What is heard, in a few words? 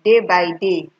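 "day by day", Nigerian Pidgin, female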